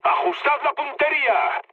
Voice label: player friendly fire, mood low, speech; War Thunder

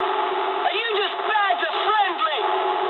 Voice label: eng player killed ally mood low, speech eng

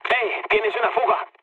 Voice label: damaged water, mood low, speech; War Thunder

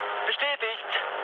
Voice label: player killed enemy, mood med, speech ge; War Thunder

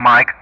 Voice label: "Mike", ENG; Ka-50; Alphabet; DCS

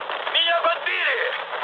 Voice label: aircraft destroyed, mood med, speech ru; War Thunder

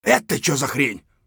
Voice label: scav mutter, voice; Escape from Tarkov; scav1

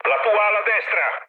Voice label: right wing mood low, speech it; War Thunder

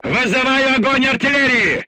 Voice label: voice message art command, crew tanks russian

